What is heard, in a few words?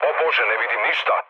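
Serbian searchlite mood low, speech; War Thunder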